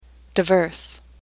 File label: "diverse", English; AWL